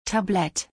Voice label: Tray in German